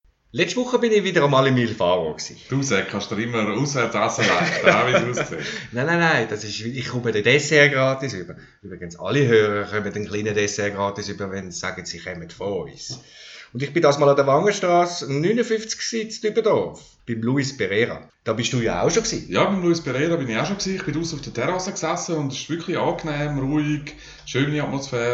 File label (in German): Werbung podcast Pizzeria; il faro; wangen-strasse duebendorf